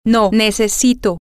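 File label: I need no, Spanish, female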